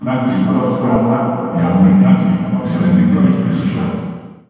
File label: Tannoy Substitute; Championship Manager 2006